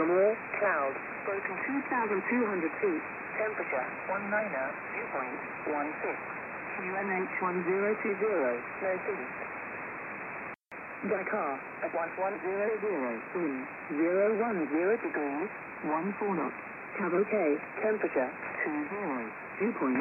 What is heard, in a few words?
11253khz Volmet USB; RAF